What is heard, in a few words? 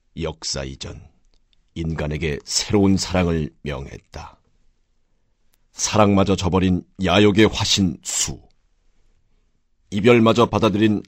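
Korean male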